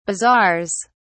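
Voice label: "bazaars", English, British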